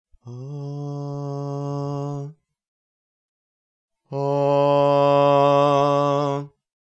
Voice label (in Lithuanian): tylus garsus